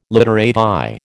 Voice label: "literati", American